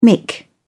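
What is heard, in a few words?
"mick", British English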